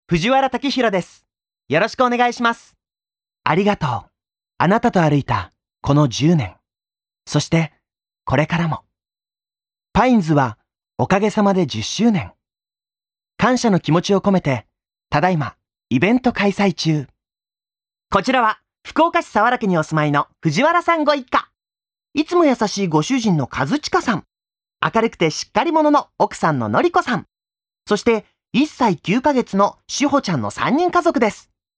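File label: Japanese, male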